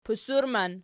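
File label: "pusurman" nominative, Archi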